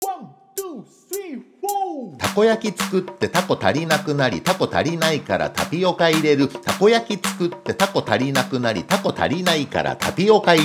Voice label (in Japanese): 早口言葉リズム（中級編）